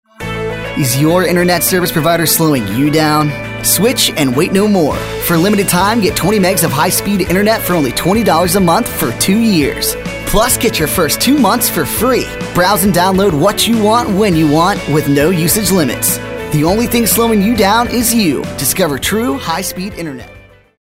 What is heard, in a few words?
Upbeat